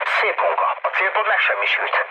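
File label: Hungarian bomb success mood low, speech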